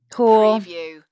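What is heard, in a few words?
Woman Says Cool Bored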